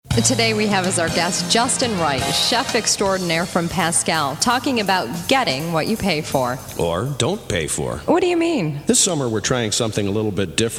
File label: Full Commercial, voiceover; Pascals Resturant